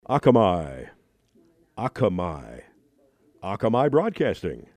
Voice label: akamai, pronunciation hawaii